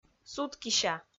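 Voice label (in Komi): "суткися", Komi, female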